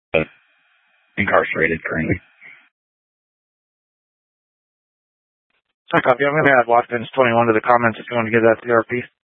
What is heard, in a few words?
Scottsdale Police, Simulcast